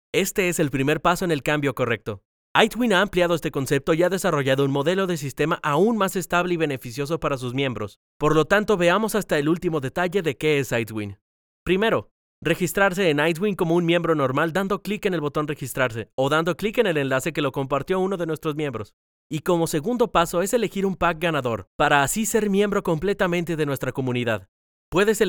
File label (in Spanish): corporativo; aidswin